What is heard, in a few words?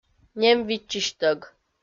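"нем виччысьтӧг", Komi, female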